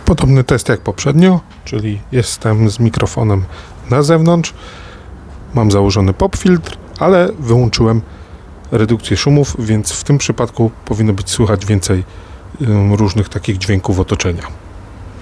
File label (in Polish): brak redukcji na zew pop filtr; Mozos MX3 Dual